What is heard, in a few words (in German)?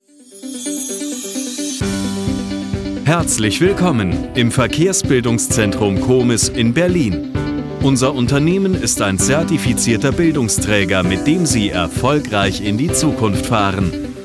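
Kommentar, Variabel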